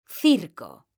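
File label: "CIRCO", Spanish, female; mekanta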